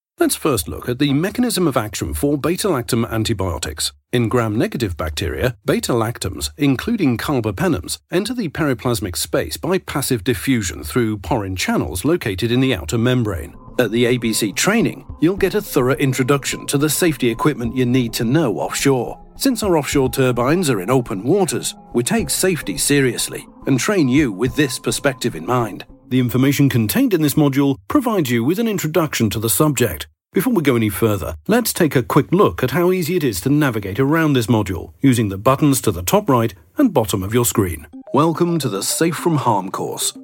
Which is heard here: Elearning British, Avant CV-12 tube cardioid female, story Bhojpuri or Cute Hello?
Elearning British